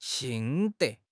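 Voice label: "心得", Mandarin Chinese; HSK6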